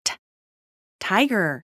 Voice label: babys sound audio TIGER